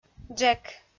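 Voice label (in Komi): "джек", Komi, female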